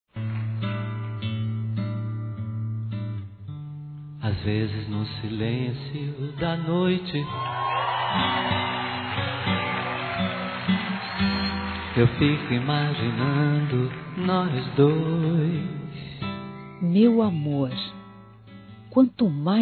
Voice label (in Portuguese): NETRO (F); Aniversários; Aniversários diversos; fem